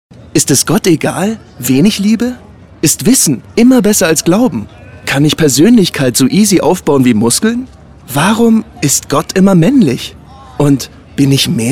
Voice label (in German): radiospot; relikampagne